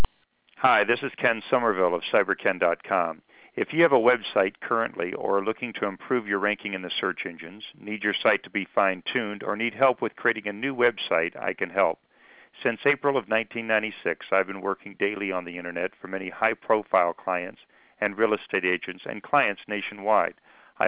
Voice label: voice message